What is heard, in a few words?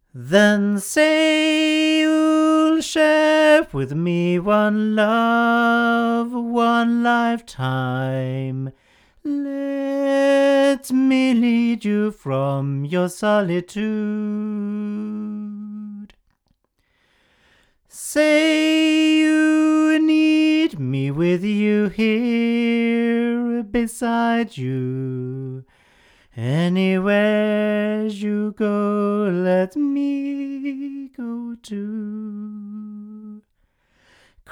AEA R84 male, microphones recordings